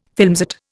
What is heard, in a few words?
"filmset", Indian